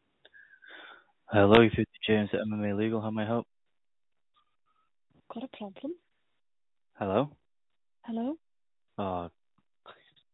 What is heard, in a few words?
call recording